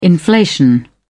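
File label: "inflation", British English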